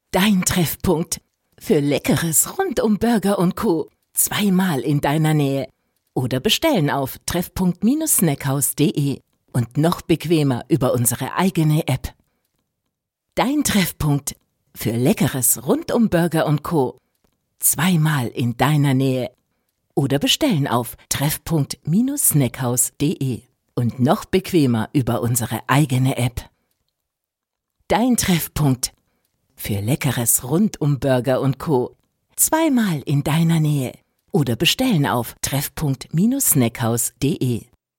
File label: German female voice commercial